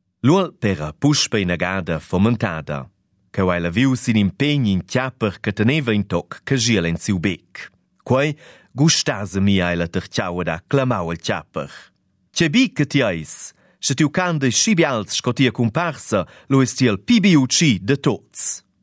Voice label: Idiom SURSILVAN; rätoromanischen Sprache